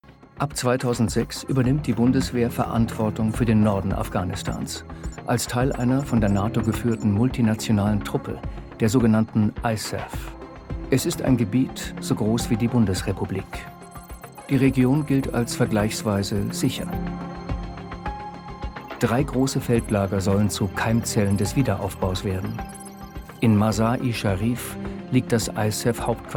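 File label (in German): Kommentar, Sprecher, Männer; ZDF Doku; Kriegstuechtig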